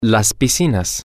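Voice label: male, spanish; the Pools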